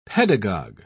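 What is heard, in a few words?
"pedagog", English, British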